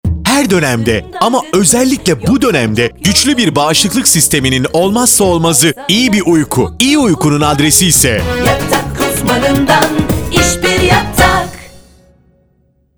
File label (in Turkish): Reklam